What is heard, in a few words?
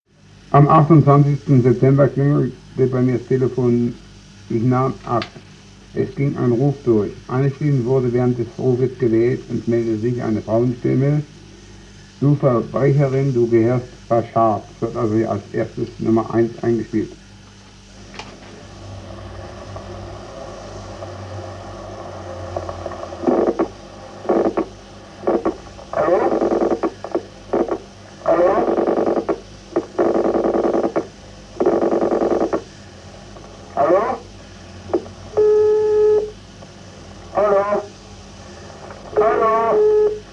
Paranormal voice on the telephone; paranormal music, raps and haunting phenomena, electric voice phenomena